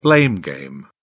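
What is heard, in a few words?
"blame game", British English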